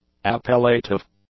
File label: "appellative", English; adjectives